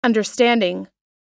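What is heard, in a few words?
"understanding", American English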